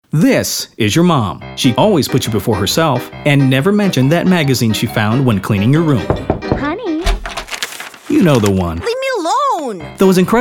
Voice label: Commercial